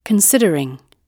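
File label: "considering", British English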